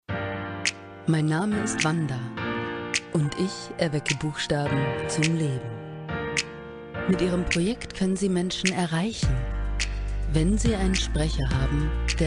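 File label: German, female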